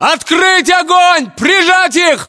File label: order action suppress, english